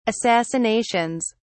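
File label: "assassinations", British English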